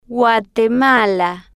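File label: "guatemala", Spanish, slow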